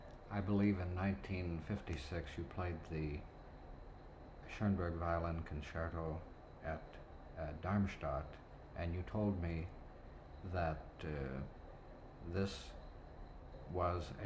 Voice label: Interview; Schoenberg Violin Concerto Op 36; Kolisch Interview